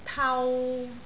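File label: "tau3", Cantonese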